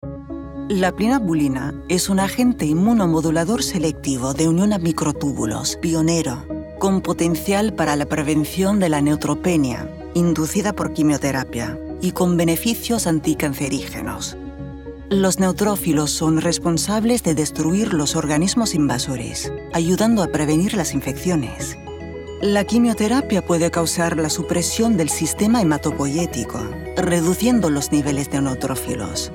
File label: Pharma Castillian Spanish